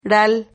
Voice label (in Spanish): "ral", Silabas